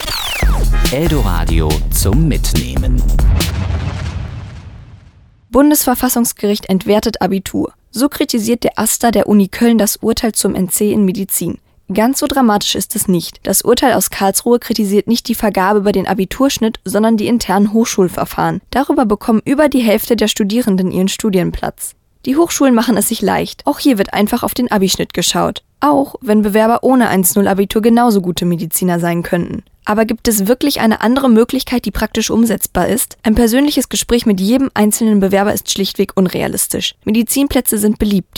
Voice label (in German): podcast kommentar; medizin nc